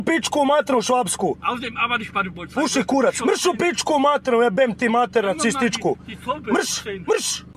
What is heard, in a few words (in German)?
schimpfen jugoslawisch